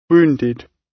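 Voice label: "wounded", British English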